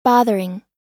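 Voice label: "bothering", American English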